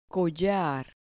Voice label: "collar", Spanish